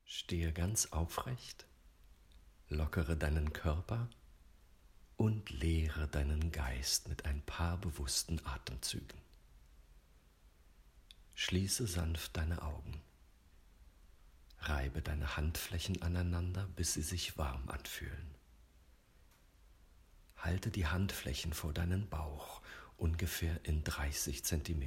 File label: Meditation